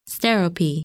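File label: "Sterope", English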